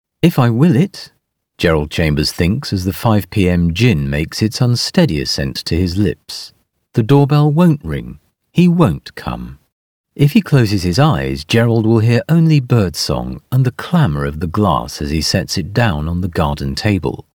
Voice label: Audiobook